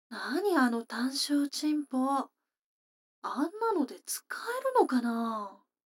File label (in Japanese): 女の子 台詞, ASMR